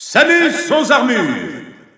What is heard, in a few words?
French Announcer; Zero Suit Samus; SSBU